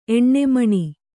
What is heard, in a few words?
"ಎಣ್ಣೆಮಣಿ", Kannada